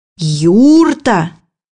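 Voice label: "yurt", Russian; bukvar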